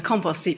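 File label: "compoST heap", Standard Southern British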